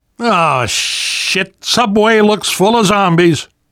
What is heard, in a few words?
world hospital, namvet, survivor voice